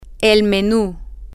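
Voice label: the menu, Spanish, female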